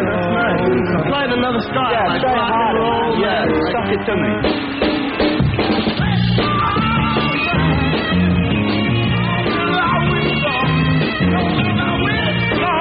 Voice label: perfume, radio spots; windsong; psychedelic promos and radio spots